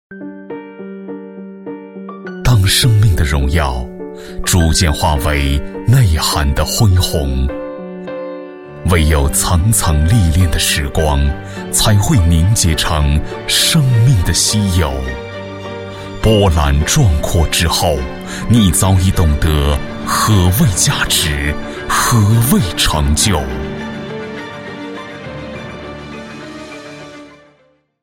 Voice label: 男3-广告-珠宝品质, 国语男声配音

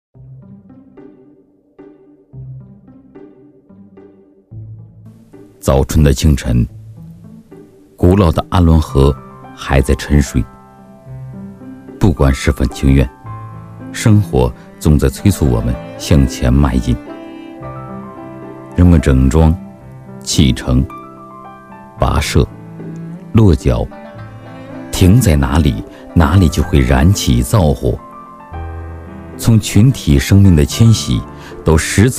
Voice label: 干音; 舌尖中国美食录音; 舌尖上的阿荣

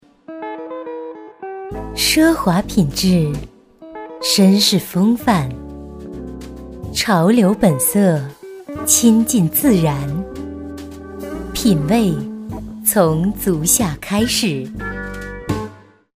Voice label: 女 广告 鞋 品质广告, 国语女声配音; 省台女配

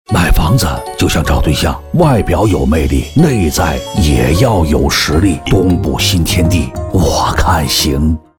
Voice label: 男 模仿 房地产广告; 模仿葛优版

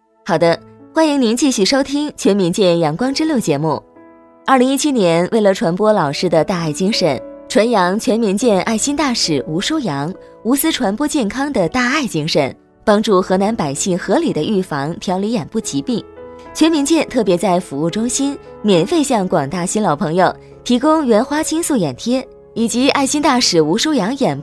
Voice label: 女 电台 讲座, 国语女声配音; 阳光之路讲座

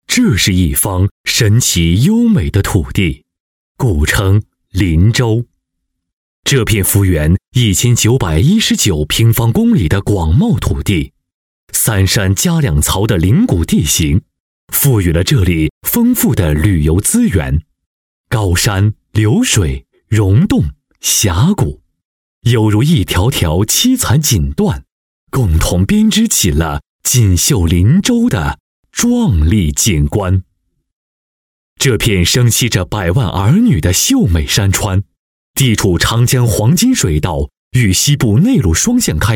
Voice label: 男, 地区宣传; 邻水县清水谷水利风景区

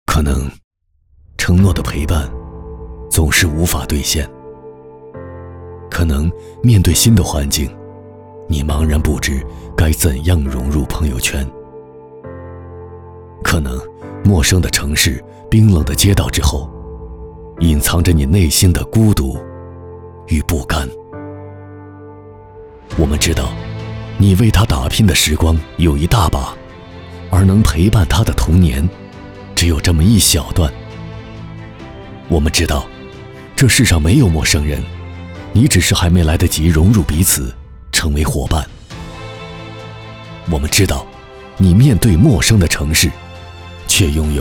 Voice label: 男 广告 独白 走心; 建业共青团TVC

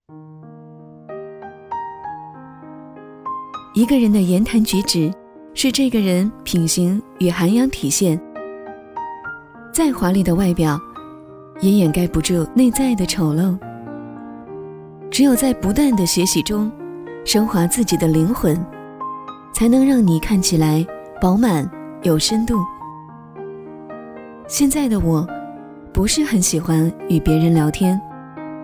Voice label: 女, 散文; 一个人的言行举止

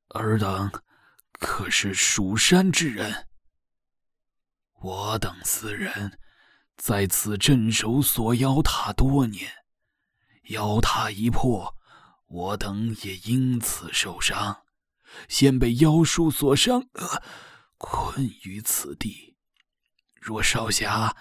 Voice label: 游戏人物旁白, 游戏角色类; 仙剑奇侠

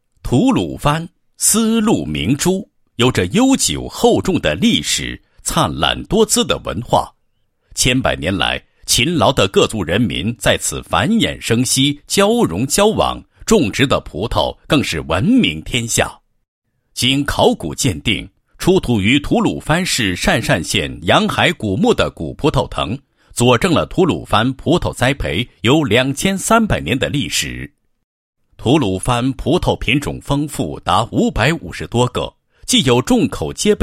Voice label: 男 政府; 吐鲁番市全面推进架式改造 不断促进产业升级

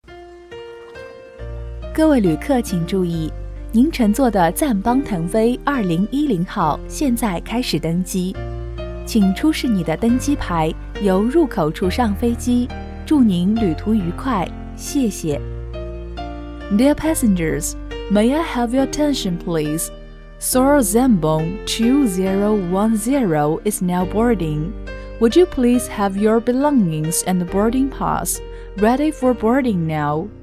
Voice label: 英女 机场登机前提示播音音

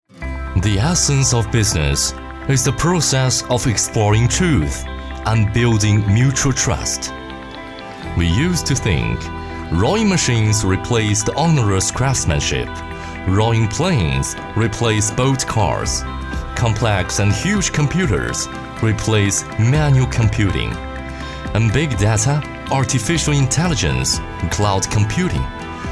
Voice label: 男 英文, 英文

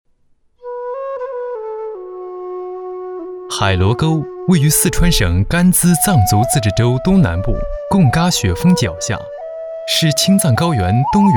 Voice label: 男 专题 景点介绍, 国语男声配音, 青春时尚

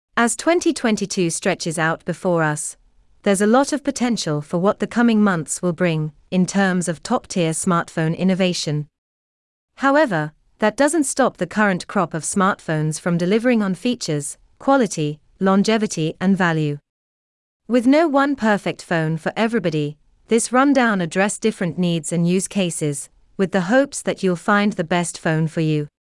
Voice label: 外籍英语女, 平缓叙述

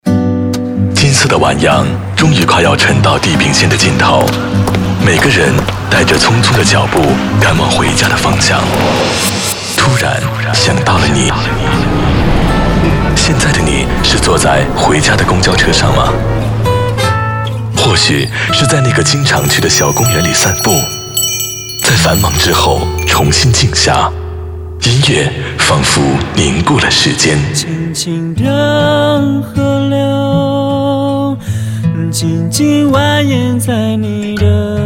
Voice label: 男 广告, 国语男声配音; 虾米音乐会版头; 交广主播

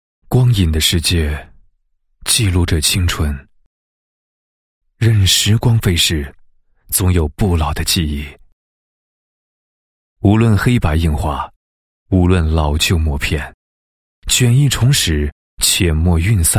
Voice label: 男 诗歌, 配音; 梦想不灭 青春不老 贵阳有名堂

